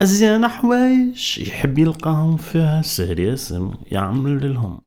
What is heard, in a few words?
POET, POET VOICE, Arabian Traditions